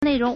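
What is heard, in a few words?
"nei2rong4", Mandarin Chinese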